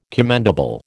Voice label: "commendable", American